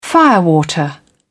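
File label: "firewater", British English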